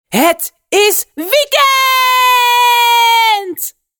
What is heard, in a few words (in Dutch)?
Het is weekeeeeeeend, zonder SFX